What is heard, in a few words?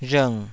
"rhng3", taiwanese